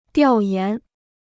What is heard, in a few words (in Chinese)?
"调研", Chinese